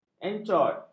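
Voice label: "encot", Malay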